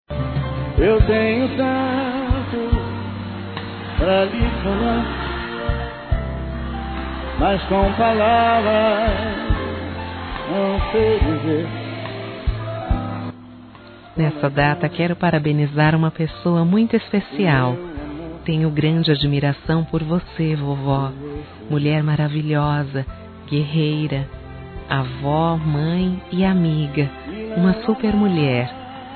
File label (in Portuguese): ANIV. AVÓ F